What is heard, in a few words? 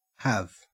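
"have", Australian English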